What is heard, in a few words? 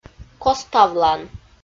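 "коставлан", Komi, female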